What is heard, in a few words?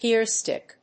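"gear-stick", English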